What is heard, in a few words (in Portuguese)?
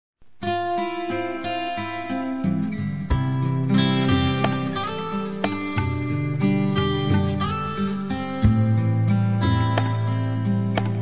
NÃO SUPORTO SUA INDIFERENÇA, masc; Broncas